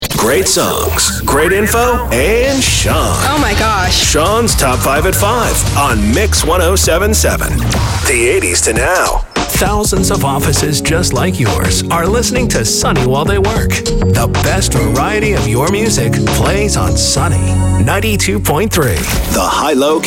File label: radio men ac; radio imaging